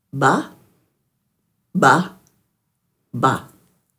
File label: "ba", Dhurga